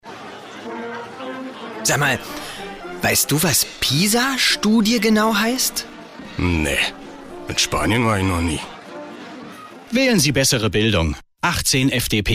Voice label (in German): funkspot; pisa